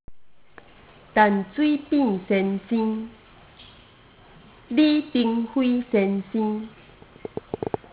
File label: Taiwanese